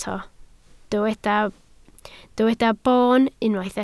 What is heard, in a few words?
"boon", Welsh